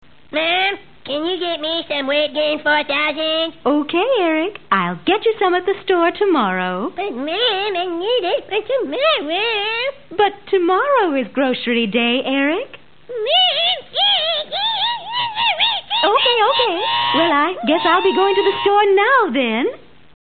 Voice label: whine; South Park

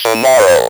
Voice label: "TOMORROW", Speak & Spell

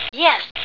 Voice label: "yes!", Battle Girl

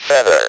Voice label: "feather", Speak & Spell

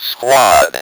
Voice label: "SQUAD", Speak & Spell

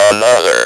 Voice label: "ANOTHER", Speak & Spell

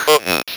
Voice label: "COULDNT", Speak & Spell

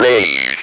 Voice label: "BEIGE", Speak & Spell

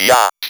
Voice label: "YACHT", Speak & Spell

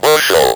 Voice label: "BUSHEL", Speak & Spell

